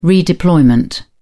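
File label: "redeployment", English, British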